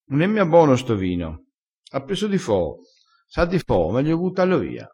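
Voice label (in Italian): "foo", vocabolario dialettale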